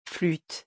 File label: "flute", French; vocabulary; musical instruments